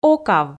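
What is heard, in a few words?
"окав", Komi, female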